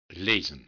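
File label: "lezen", Dutch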